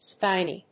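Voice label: "spiny", English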